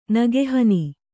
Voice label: "ನೆಗೆಹೆಣ್ಣು", Kannada

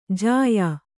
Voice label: "ಜ್ಯಾ", Kannada

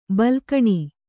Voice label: "ಬಾಲ್ಕನಿ", Kannada; balkaṇi; ಬಲ್ಕಣಿ; noun

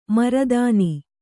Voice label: "ಮರುದಿನ", Kannada

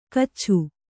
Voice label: "ಗಚ್ಛ", kannada

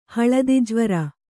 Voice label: "ಹಳದಿಜ್ವರ", Kannada

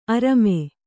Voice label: "ಅರಮೆ", Kannada; arame; to some extent; in a small degree; to a slight extent; only slightly; not much.

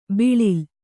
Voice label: "ಬೀಳಿಲು", Kannada

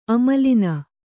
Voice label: "ಆಮೇಲಿನ", kannada

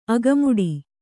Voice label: "ಅಗಮುಡಿ", Kannada

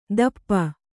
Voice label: "ದಪ್ಪ", kannada

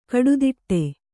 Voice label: "ಕುಡುದಡಿ", Kannada

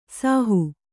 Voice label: "ಸಾಹು", Kannada; sāhu; to cease to live; to die.

